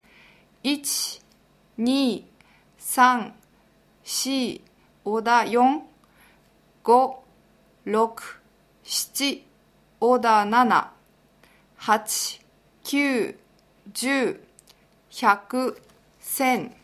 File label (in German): Japanisch